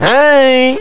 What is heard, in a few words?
Man Hiii